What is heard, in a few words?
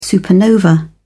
"supernova", en uk